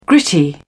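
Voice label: "gritty", British English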